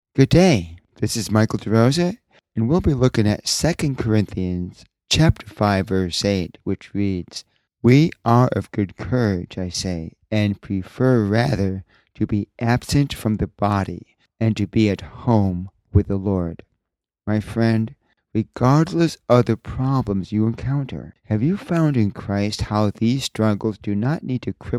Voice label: Radio Sermonette; 2 Corinthians 5 8; Victory in Struggles